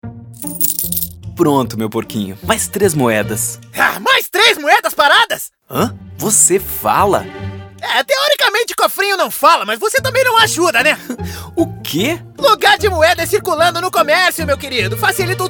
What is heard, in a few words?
SPOT